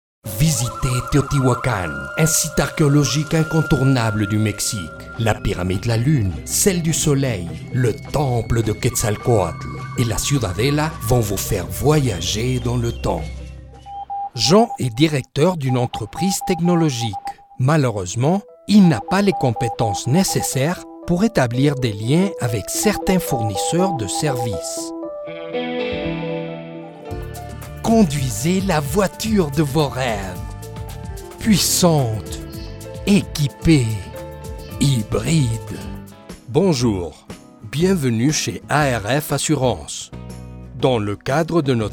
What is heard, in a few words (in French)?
Francais